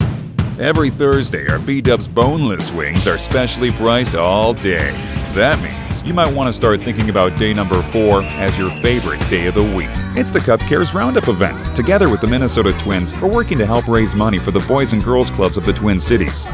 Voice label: Commercial VO; Commercial Voice-Over Demo